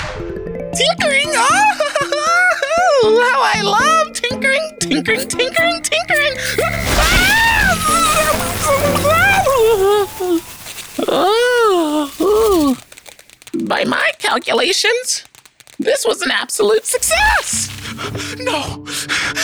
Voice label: Animation & Gaming